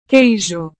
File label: "queijo", portugues